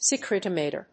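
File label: "secretomotor", English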